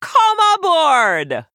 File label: Female Troll; Hooktusk; Mission 3 Hero Power